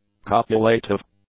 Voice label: "copulative", English; adjectives